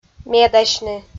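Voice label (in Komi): "медасьны", Komi-Zyrian, female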